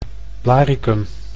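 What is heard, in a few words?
"Blaricum", Dutch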